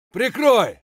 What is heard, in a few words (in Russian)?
"prikroy" scream; tarkov